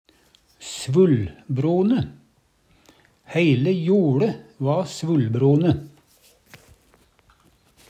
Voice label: svullbrone, Numedalsmål

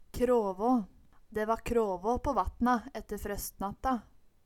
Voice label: krava, Numedalsmål

